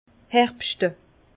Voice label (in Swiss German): "herbschte", Bas Rhin; vin; vendanger